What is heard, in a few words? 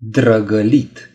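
"smooch", Romanian; gcompris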